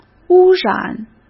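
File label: "污染", Mandarin Chinese; chinese flashcards; hsk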